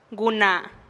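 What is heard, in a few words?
"guna", Teotitlán del Valle Zapotec; mujer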